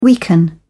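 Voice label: "weaken", British English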